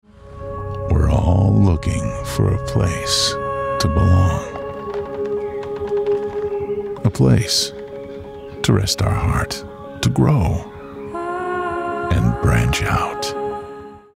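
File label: commercial upbeat English USA Male Unplugged; Commercial Relatable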